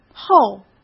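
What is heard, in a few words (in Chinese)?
"后", Mandarin Chinese; chinese flashcards; hsk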